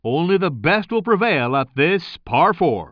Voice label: commentator